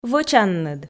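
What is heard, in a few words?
"вӧчанныд", Komi, female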